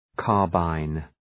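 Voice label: "carbine", English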